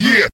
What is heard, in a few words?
"yeah", group of men